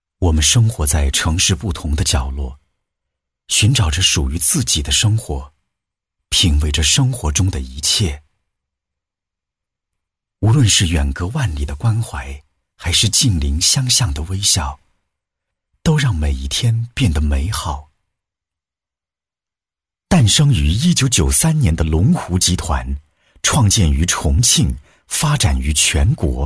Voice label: 男 旁白 低沉走心; 年轻多变 质感磁性; 龙湖

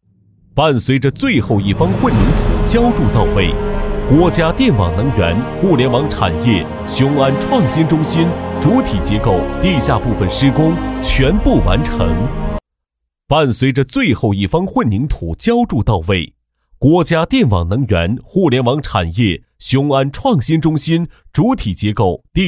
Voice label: 男 工程汇报 大气有力, 年轻气质 大气浑厚; 国家电网能源互联网产业雄安创新中心项目

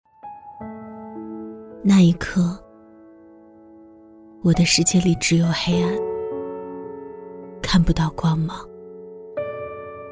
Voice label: 女 微电影独白 社区矫正 伤感独白; 低沉走心

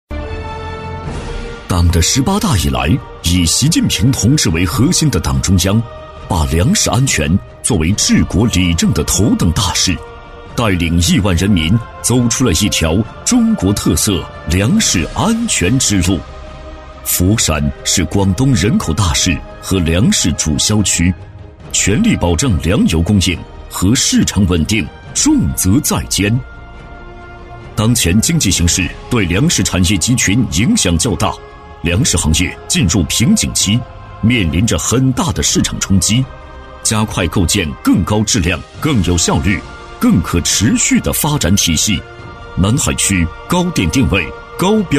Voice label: 男 党政专题 激情有力; 南海粮食行业

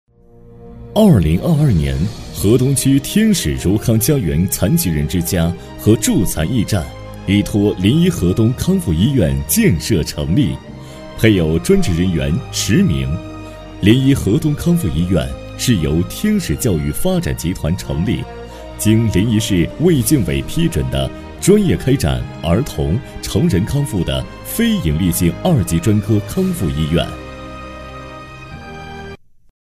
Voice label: 男 医院专题 厚重大气; 厚重有力; 大气浑厚

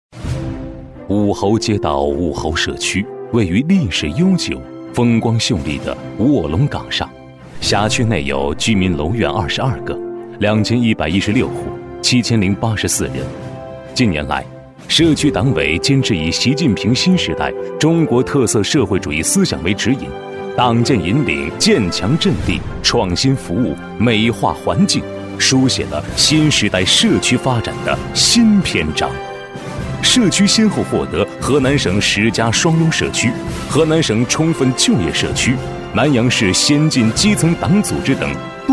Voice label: 男 党建宣传片 大气厚重; 男88-抑扬顿挫 大气浑厚; 武侯社区

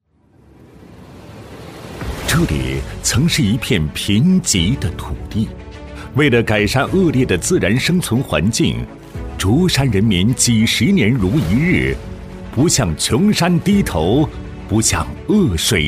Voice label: 男 政府专题 城乡一体创品牌 大气稳重; 男37-大气稳重; 沉稳大气

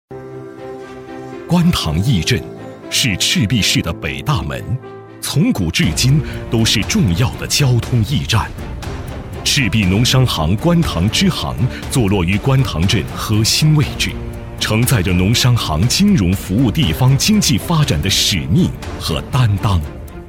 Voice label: 男 银行工作纪实 厚重磁性; 赤壁农商行官塘支行

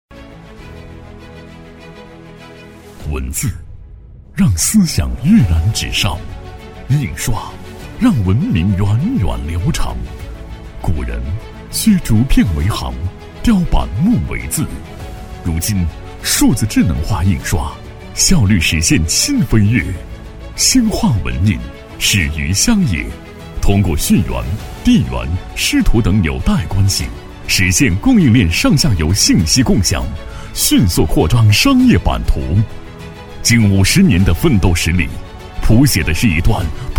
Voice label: 男 科技感 沉稳大气; 文以载道，光印荏苒

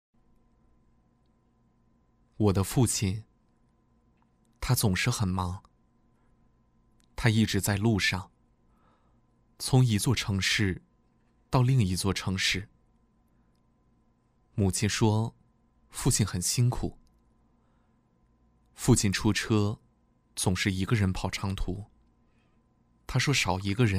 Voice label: 男 人物讲解 讲述 走心; 我的父亲; 年轻活力; 质感磁性

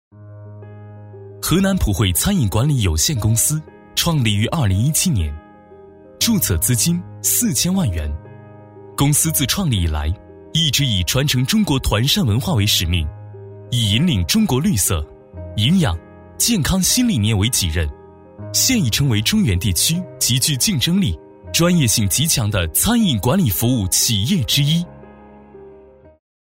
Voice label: 男, 年轻专题, 公司宣传, 年轻 轻松; 男391-科技品质; 沉稳大气

